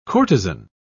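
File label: "courtezan", British English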